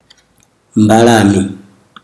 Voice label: "bird", Chikunda